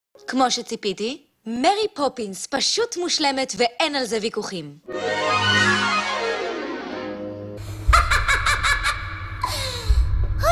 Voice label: Hebrew animation